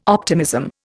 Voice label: "optimism", Indian